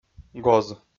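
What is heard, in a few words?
"гоз", Komi, male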